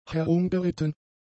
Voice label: "herumgeritten", German; adjectives

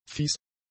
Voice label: "fies", German; adjectives

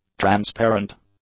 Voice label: "transparent", English; adjectives

